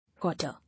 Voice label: "Water", English